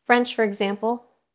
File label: Voice Acting es